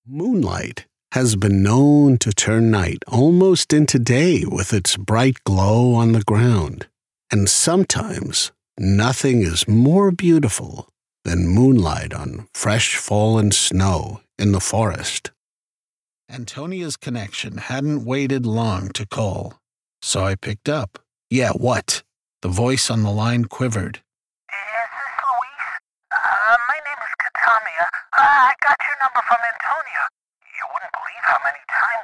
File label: Audiobook